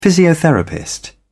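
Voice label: "physiotherapist", British English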